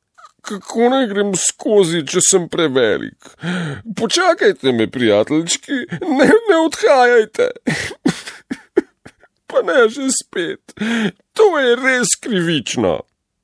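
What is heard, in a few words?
slovenian male character; voice demos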